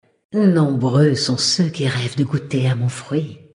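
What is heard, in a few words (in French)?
Female Flirt, voix françaises; Sacrenuit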